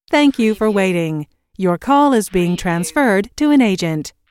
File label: US female phone prompt Thank you for waiting - Your call is being transferred to an agent; lynne publishing